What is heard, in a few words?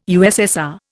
"USSR", Indian